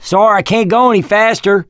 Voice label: going to die, survivor voice mechanic

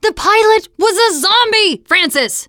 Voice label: zombie truck, teen girl

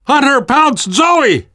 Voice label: hunter pounced, survivor voice namvet; Left 4 Dead 2; left4dead2 dlc3

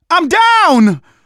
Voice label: incapacitated initial, manager, survivor, voice; Left 4 Dead 2; left4dead2 dlc3

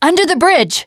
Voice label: generic, teengirl, voice

